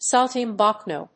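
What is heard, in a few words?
"Saltimbanco", English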